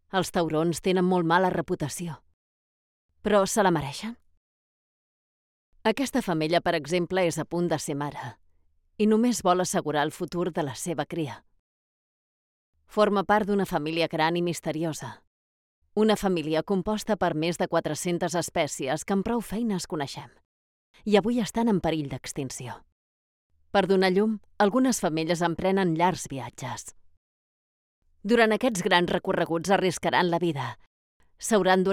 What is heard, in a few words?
Catalan documentaries; professional Spanish and Catalan voice actor